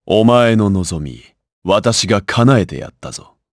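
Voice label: Victory, Japanese; Lusikiel; kingsraid; jp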